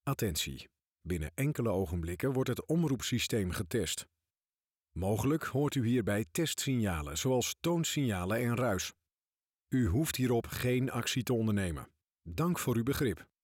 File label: Dutch Male